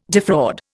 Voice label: "defraud", Indian